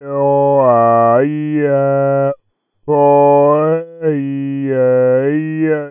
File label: vowel Space Slow